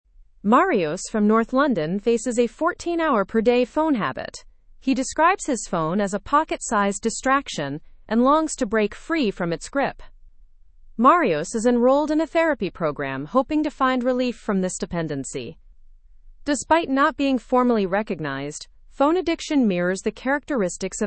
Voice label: learn english through news upper intermediate; rising phone addiction leads to increased therapy